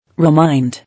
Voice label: "Remind", English

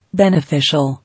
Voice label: "Beneficial", English

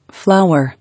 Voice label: "Flower", English

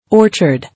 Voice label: "Orchard", English

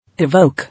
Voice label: "Evoke", English

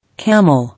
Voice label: "Camel", English

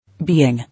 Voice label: "Being", English

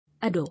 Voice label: "Adore", English

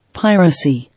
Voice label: "Piracy", English

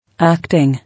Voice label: "Acting", English

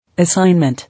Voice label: "Assignment", English

